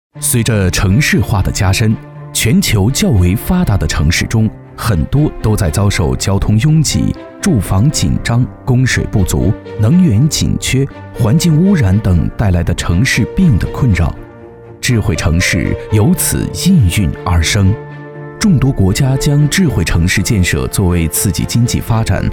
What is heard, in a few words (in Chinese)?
男, 专题纪录, 系统介绍